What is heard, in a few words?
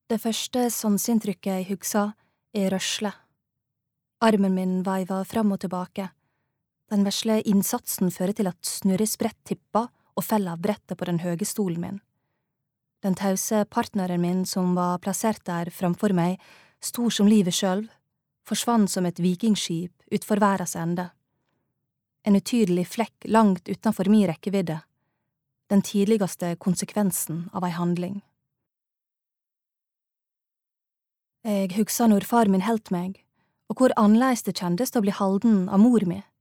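Norwegian audiobook; female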